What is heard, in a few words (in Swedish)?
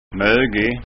"mogig", skanska